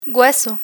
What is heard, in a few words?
"hueso", Spanish